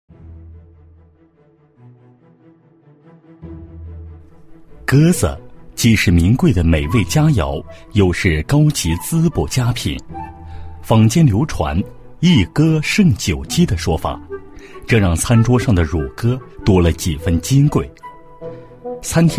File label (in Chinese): 男 专题, 国语男声配音 大气浑厚; 舌尖上的中国 鸽子